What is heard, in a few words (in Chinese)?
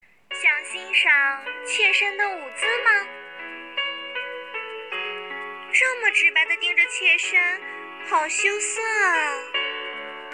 少女音童音活泼可爱,女配音,童声（3-12）,普通话; 游戏貂蝉